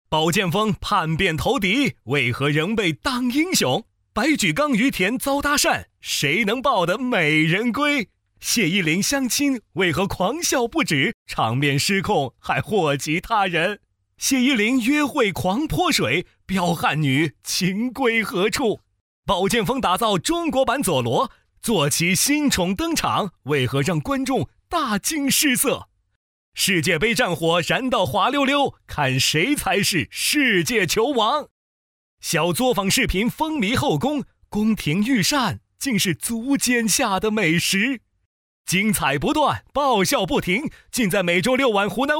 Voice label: 男声 轻松类 节目预告 干声; 湖南卫视《我们都爱笑》7月19日节目预告

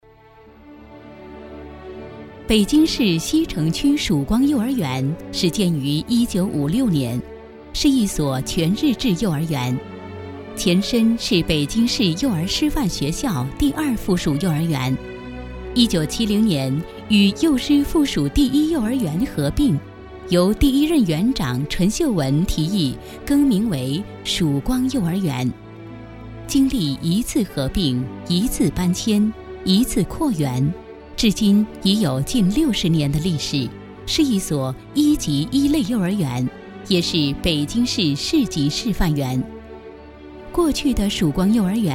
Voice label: 广告 幼儿园 宣传片, 国语女声配音; 成熟专题; 曙光幼儿园

